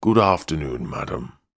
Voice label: human english male butler says good afternoon madam